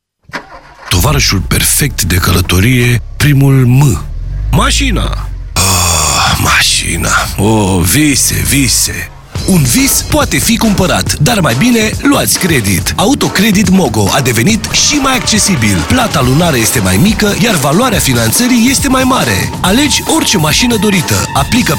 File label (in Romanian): Romanian VO; Mogo; Boldo